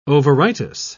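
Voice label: "ovaritis", British English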